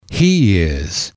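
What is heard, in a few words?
he is, male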